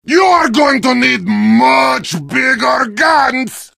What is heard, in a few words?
heavy taunts; video games; source engine; tf